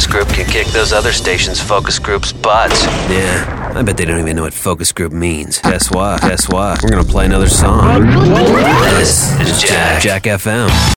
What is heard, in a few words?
rock, radio imaging